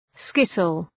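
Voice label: "skittle", English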